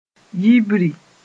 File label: "yibri", mos-en